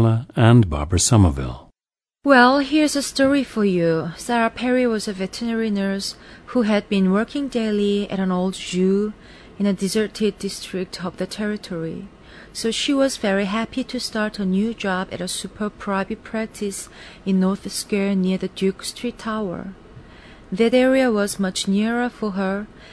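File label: korea, International Dialects of English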